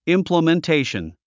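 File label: "implementation", English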